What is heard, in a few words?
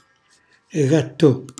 "gattò", Calabrese; cucina calabrese; Glossario